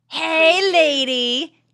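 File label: Woman Says Hey Lady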